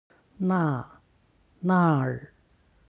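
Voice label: "nar", Chinese; 那（那儿）; nà/nàr; そこ; HSK Level One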